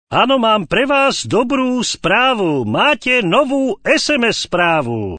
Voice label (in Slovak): sms hlásky cz